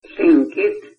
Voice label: tlingit